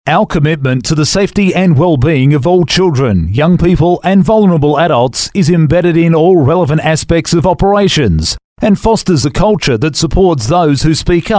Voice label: male talent corporate